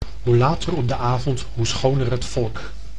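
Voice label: "hoe later op de avond hoe schoner het volk", Dutch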